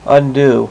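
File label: "undo", male, voice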